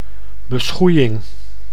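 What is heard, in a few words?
"beschoeiing", Dutch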